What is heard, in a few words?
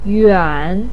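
"yuan3" Chinese syllable, pinyin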